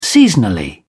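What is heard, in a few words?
"seasonally", British English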